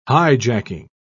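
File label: "hijacking", British English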